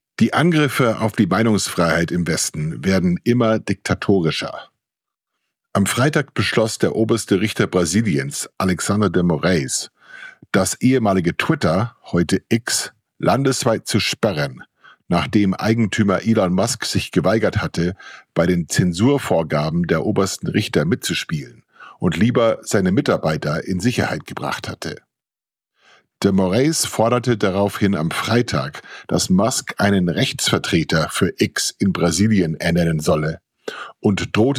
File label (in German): Kommentar; Kontrafunk aktuell